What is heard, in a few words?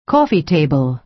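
"coffee table", American English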